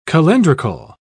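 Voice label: "calendrical", English, British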